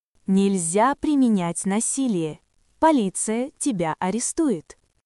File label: Russian, russian phrases insults; ロシア語のネガティブなフレーズ